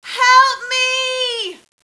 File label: help meee, civfem; SINDEMO